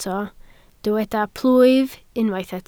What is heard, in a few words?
"plwyf", Welsh